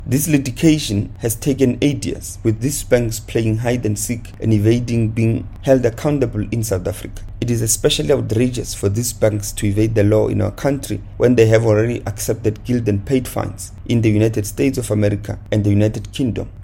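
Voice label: English; 28 Banks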